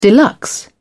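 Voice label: "deluxe", British English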